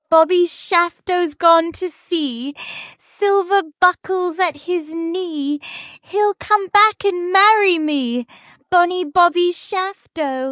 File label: fem brit; Bobby Shafto